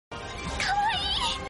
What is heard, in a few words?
voice kawaii sound notification; mitsuri